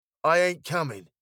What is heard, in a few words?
"I ain't coming", British